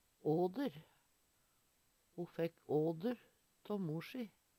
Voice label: "åder", Numedalsmål; Ho fekk åder tå mor si.

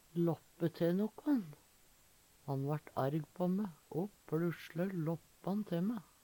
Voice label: lappe te nokkon, Numedalsmål; Uttrykk; Veggli

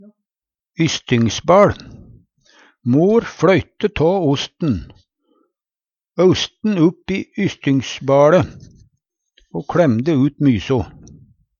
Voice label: "ystingsbaL", Numedalsmål; Ei ystingsbaL vart som både form og sil

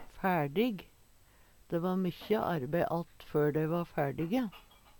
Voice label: "fæLdig", Numedalsmål

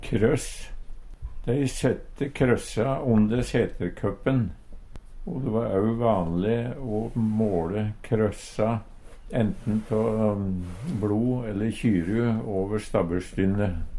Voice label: "krøss", Numedalsmål; Substantiv hankjønn

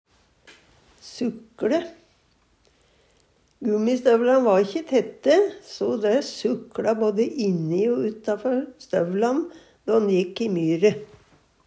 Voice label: sukLe, Numedalsmål

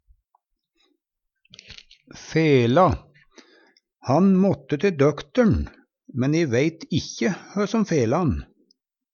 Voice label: "fela", Numedalsmål; Han måtte te døkter'n, men e veit ikkje hø som fela'n.; Verb

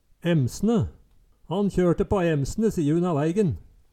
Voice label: emsne, Numedalsmål; Oftast bruka i valg mellom to og er ubøyeleg.

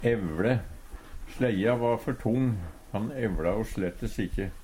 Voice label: "evLe", Numedalsmål; Verb; Slejja va før tong, han evLa ho slettes ikkje.

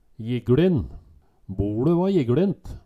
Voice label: "jiggLin", Numedalsmål

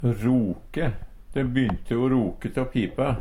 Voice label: roke, Numedalsmål; De by`nte o roke tå pipa.

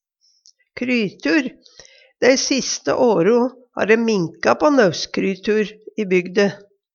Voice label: krytur, numedalsmal

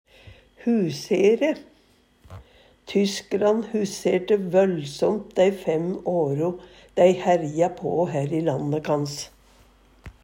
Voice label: husere, Numedalsmål; Tysk'ran huserte vøllsomt dæi fem åre/o dæi herja på hær i lande kans.

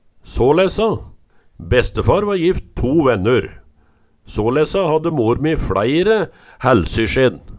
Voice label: "salessa", Numedalsmål

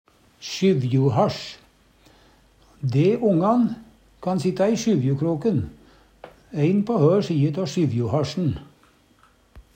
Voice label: "sjyvjuhasj", Numedalsmål

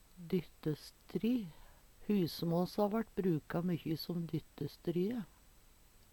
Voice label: "dyttestry", Numedalsmål; Husmåså vart bruka mykkje som dyttestrye.

